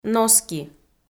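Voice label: "носки", Komi, female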